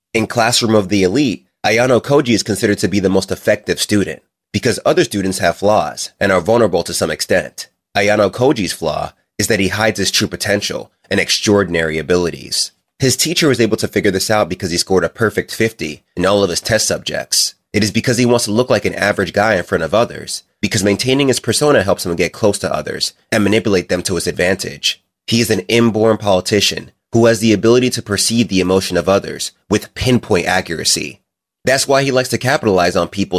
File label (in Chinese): 美式男声